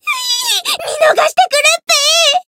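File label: Voice encounter fear, Japanese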